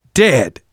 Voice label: kill confirmation, manager, survivor, voice; Left 4 Dead 2; left4dead2 dlc2

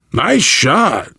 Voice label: nice shot, voice, biker; Left 4 Dead 2; survivor

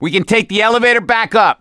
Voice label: misc directional, voice; gambler

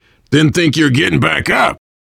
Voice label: revive friend, voice, biker; Left 4 Dead 2; survivor

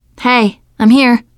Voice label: scenario join, teen girl; player; survivor; voice

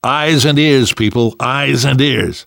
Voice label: warn careful, namvet, survivor voice; Left 4 Dead 2; left4dead2 dlc2

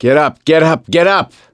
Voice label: revive friend loud, voice; gambler

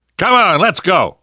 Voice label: move on, survivor voice

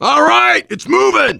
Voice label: finale button press lift, voice, biker; Left 4 Dead 2; left4dead2 dlc2; survivor

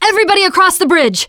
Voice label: gun end, teengirl, voice

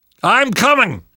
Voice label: to the rescue, namvet, survivor voice; Left 4 Dead 2; left4dead2 dlc3

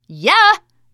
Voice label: taunt, teen girl

